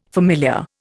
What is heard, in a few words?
"familiar", Indian